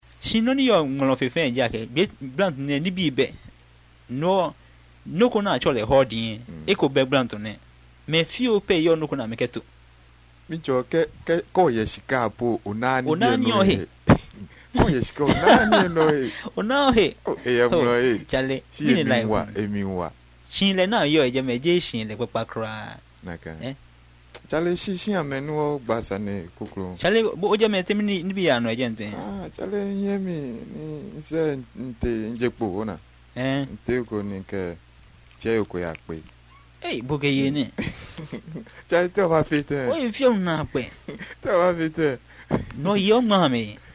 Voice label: conversation, Ga